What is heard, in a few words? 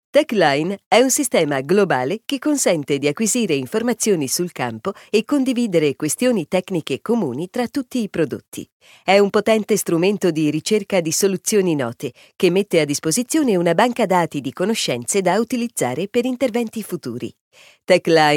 it corporate